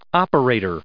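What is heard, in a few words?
"operator", US English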